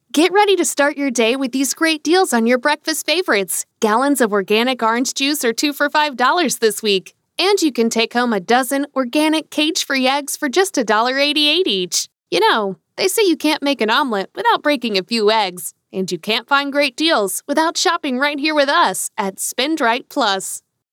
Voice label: Professional